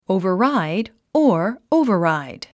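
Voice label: "override", English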